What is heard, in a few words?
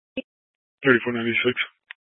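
Police Dispatch, Scanner audio recording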